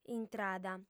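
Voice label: "intrada", Sardinian, female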